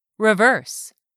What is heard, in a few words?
"reverse", English; 3000 words